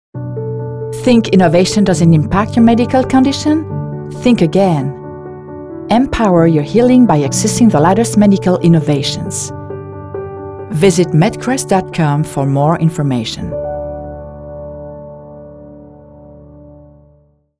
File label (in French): Pub anglais espoir